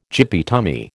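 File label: "gippy tummy", American English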